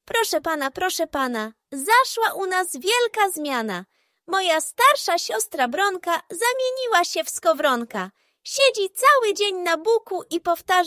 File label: audiobook; Klamczucha; Jan Brzechwa